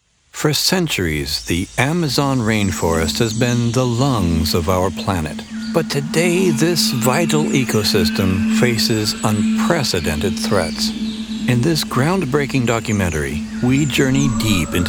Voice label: Documentary Amazon Rainforest